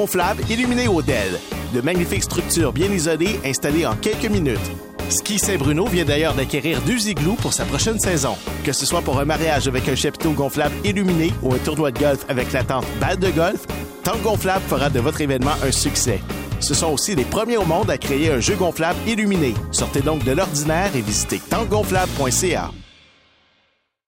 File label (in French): pub radio rythme fm